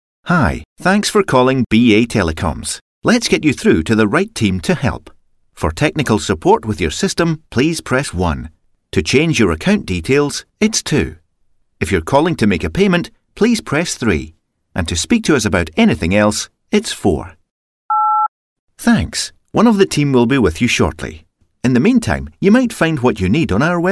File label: Scottish accent, voice samples